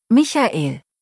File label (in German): "Michael", deutsch, jungennamen